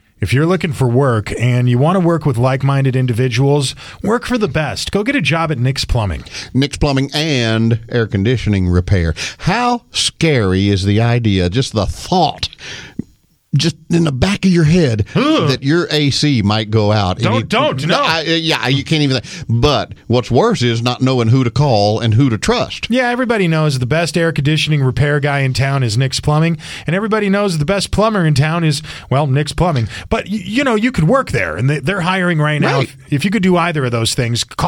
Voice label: RADIO AD; nicks plumbing radio ads